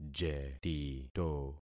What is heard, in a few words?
"jetito", concat syllables; Affixing experiment